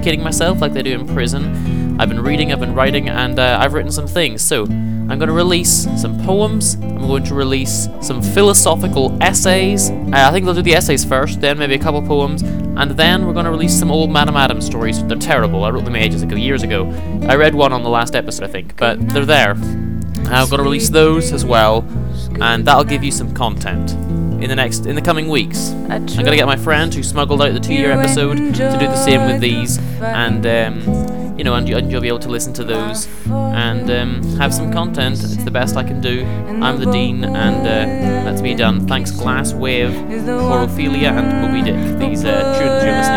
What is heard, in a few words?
Podcast Announcement